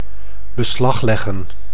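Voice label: "beslagleggen", Dutch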